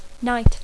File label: "night", English